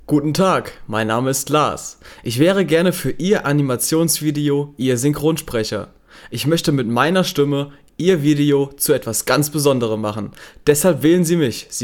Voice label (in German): Hochdeutsch